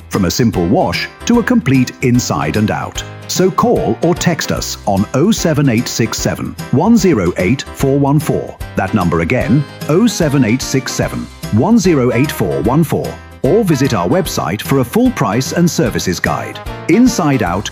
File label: Car Clean Radio Commercial long; Inside Out